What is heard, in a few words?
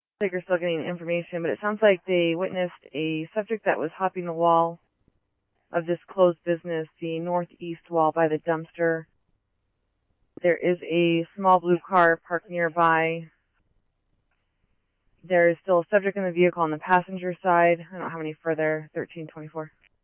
scanner, Scottsdale Police; SPD - PD2; RWC; Thompson Peak High